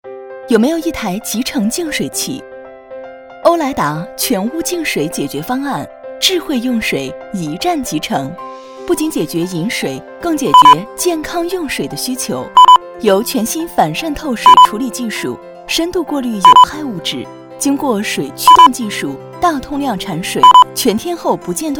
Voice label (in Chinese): 女 广告; 欧莱达净水器